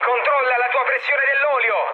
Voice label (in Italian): damaged oil, mood med, speech it; War Thunder